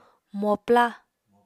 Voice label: "mopla", Apatani

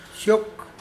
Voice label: "fly down", Mokilese

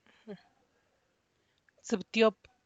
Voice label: twelve, Santiago Matatlán Zapotec; (Spanish) doce

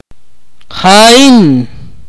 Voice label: "I", akateko

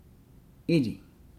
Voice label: "blow", Nukuoro

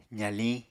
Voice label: "zaliñ", Apatani

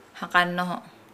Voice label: "stop", Nukuoro

